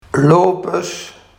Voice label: "lopes", Barghs

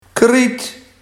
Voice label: "kriet", Barghs

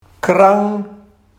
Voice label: "krang", Barghs

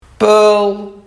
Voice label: "päöl", Barghs

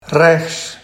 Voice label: "rechs", Barghs

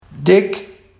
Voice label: "dik", Barghs

